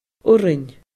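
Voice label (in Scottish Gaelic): "urrainn", Scottish Gaelic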